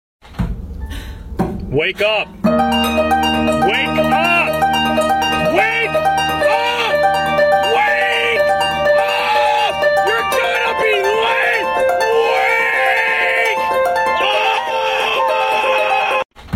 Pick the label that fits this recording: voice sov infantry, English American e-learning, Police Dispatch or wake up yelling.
wake up yelling